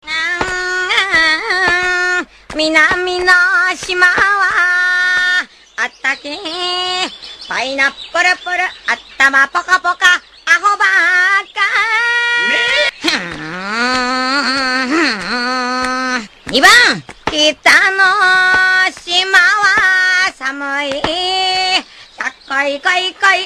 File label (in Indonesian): Nada Dering Suara Baka; Luffy